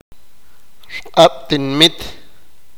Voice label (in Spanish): "aldea", sipakapense